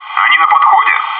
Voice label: "engaging enemies", bot; maxpack